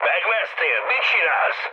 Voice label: player killed ally, mood low, speech hun